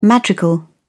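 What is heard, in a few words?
"madrigal", British English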